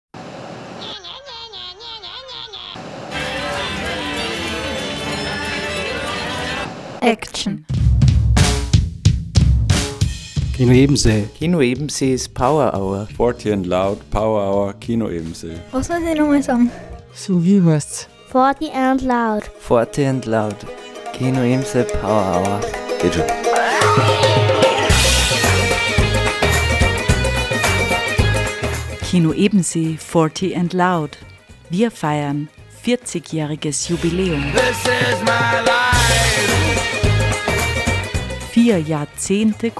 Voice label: TRAILER; Forty & loud; Freies Radio Salzkammergut; KINO EBENSEE; Der Kulturverein Kino Ebensee feiert sein 40-jähriges Jubiläum